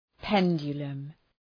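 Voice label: "pendulum", English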